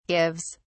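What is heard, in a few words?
"gives", English, British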